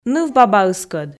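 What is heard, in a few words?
"нывбабаыскӧд", Komi, female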